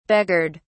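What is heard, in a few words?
"beggared", en, uk British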